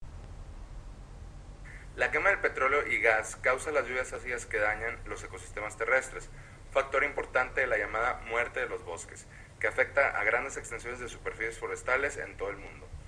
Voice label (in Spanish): narración en español del mix energético